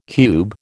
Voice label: "cube", American